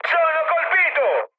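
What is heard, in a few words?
Italian aircraft destroyed mood high, speech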